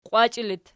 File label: "qiwachii", Archi